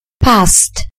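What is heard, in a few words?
"past", British English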